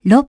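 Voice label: "six", English; iOS 4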